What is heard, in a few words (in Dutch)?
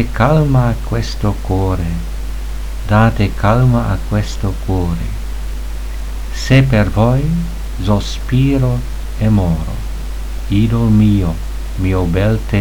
Uitspraak Luci care luci belle